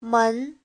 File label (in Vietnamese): "men", Hán ngữ; (lượng từ) môn (học)